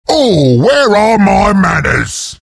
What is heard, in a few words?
nasal goo; bristleback